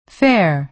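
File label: "fair" American English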